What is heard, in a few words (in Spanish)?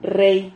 "rrei", silabas